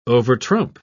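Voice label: "overtrump", English, British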